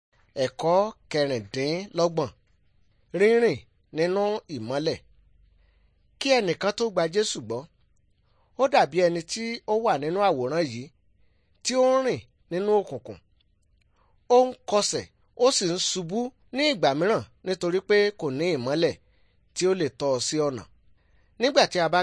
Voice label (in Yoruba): good news yoruba; bible